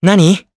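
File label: voice, Japanese; Evan; kingsraid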